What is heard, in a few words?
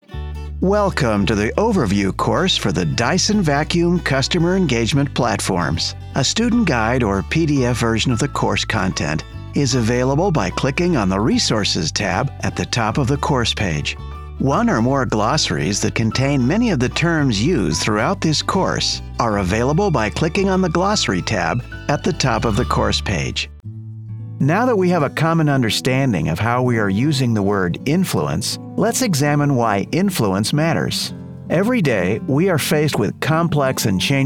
E-Learning